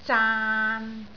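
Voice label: "zaan3", Cantonese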